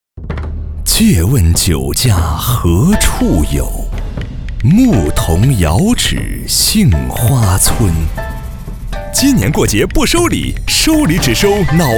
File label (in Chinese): 男 广告; 男4-央视广告-脑白金